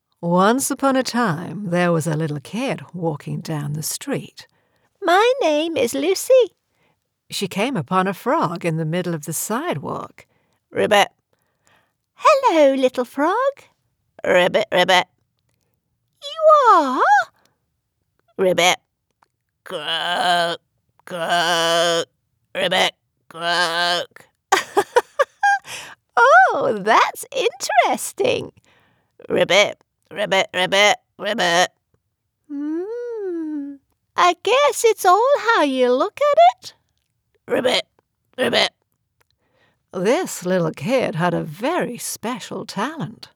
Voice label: Animation; Lucy and the frog

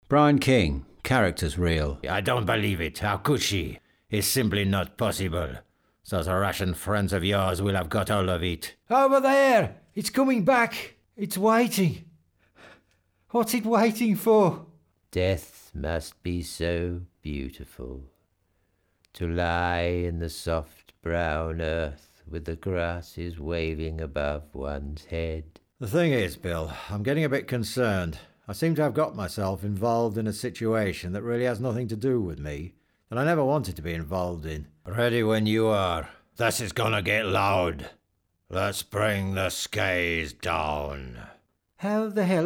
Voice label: Characters Reel

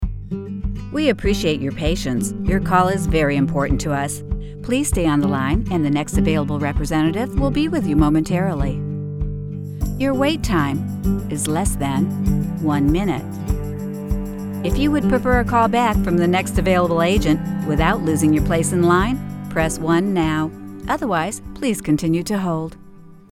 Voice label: Contact Center

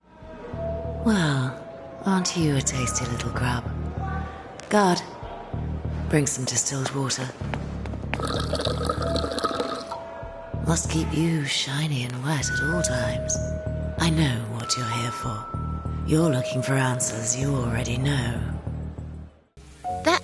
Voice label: Character

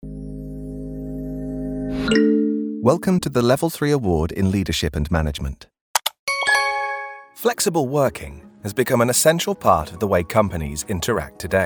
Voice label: E-Learning

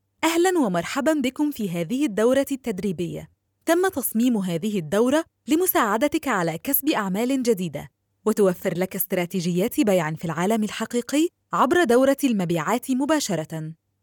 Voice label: MSA eLearning

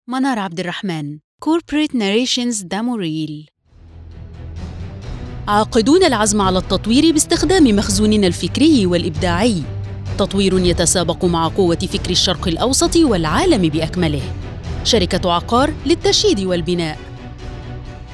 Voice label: corporate narrations

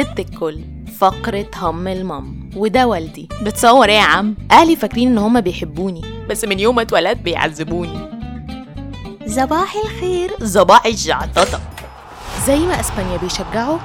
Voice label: Arabic Commercial

